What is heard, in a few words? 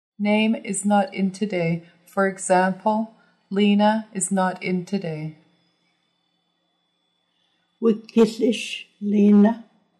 Name is not in today, Nuu-chah-nulth